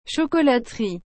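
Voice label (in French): "chocolaterie", French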